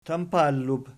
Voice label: "tampallub", Archi